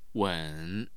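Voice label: "wen3", Mandarin Chinese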